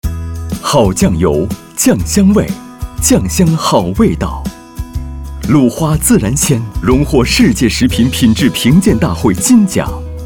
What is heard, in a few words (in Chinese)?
成熟浑厚声音广告; 鲁花酱油; 企业形象